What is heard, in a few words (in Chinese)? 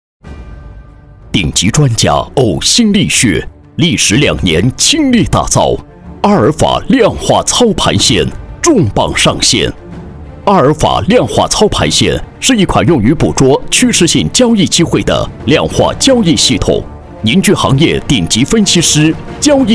男 互联网金融 大气权威